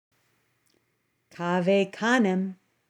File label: cave canem, recitations; Ecclesiastical Pronunciation; Beware the dog